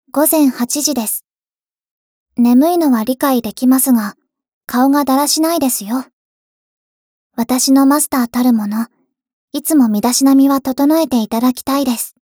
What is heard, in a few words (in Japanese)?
時報ボイス 電脳少女; ボイスコレクション 電脳少女編